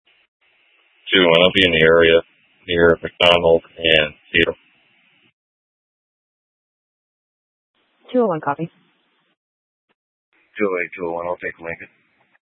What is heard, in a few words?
Dispatch, scanner